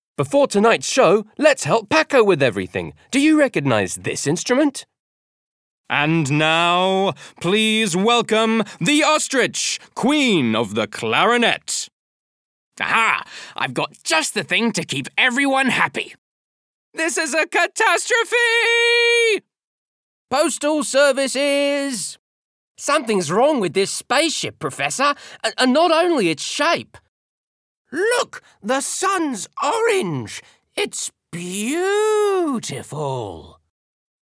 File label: VO Characters Childrens Books; voix-off